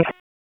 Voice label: Police Tac, p25; Raleigh; Southeast District; wake